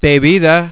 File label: "drink", Spanish